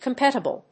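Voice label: "competible", English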